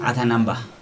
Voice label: "moon", Chug

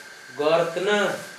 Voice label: written, Gta, Dialect: Hill; gor=tǝnǝ

